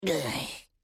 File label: Dubu Emote Negative